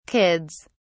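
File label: "kids", British English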